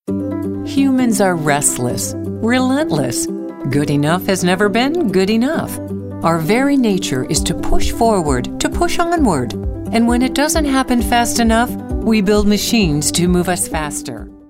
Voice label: narrative